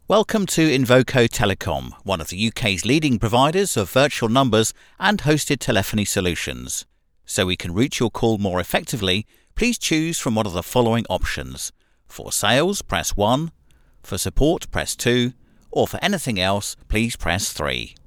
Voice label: voiceover